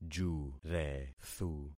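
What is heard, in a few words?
"jurethu", concatenated syllables; Affixing experiment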